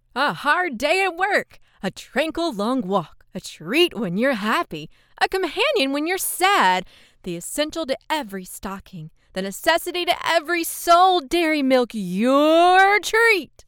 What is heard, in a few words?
VO Announcer